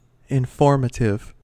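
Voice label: "informative", US English; pronunciations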